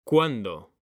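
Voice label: "CUANDO", Spanish; mekanta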